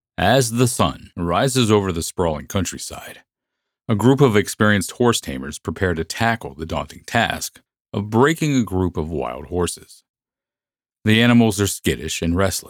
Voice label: Documentary Narration